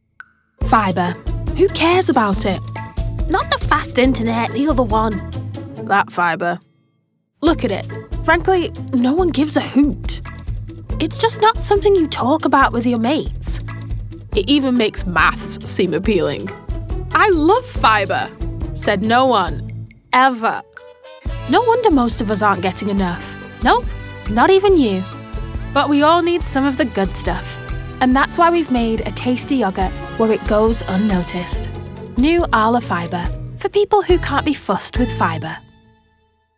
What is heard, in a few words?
female english northern accent commercial; arla